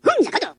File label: yes, villager